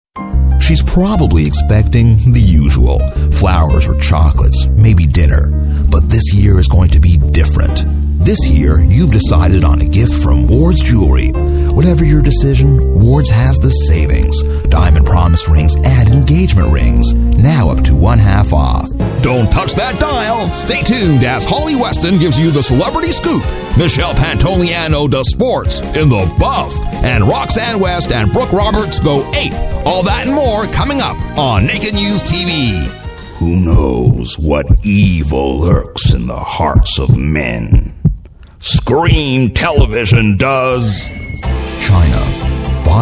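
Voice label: English Canadian, male, commercial; voice demos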